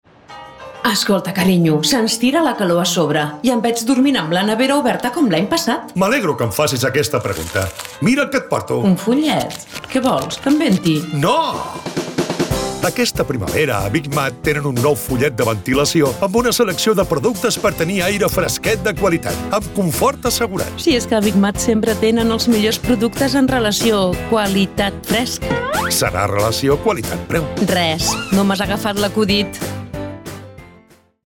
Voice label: Catalan; BIG MAT SOCIOS CATALAN; VENTILACION 2024